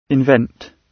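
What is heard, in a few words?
"invent", British English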